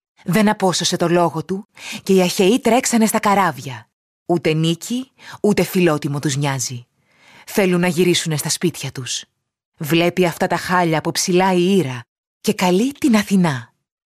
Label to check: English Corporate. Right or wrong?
Wrong